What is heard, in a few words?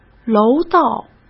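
"楼道", Mandarin Chinese; hsk; chinese-flashcards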